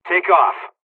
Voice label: fly, dialogs hungarian; War Thunder

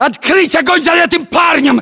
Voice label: order action suppress, english; localized english

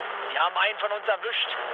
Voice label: aircraft destroyed, mood low, speech ge

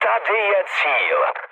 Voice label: near waypoint, speech cze, mood low; War Thunder

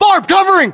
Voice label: response covering, battlechatter us

